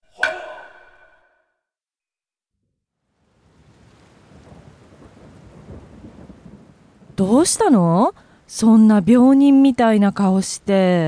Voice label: dialog; JapanesePod101; Beginner Season 1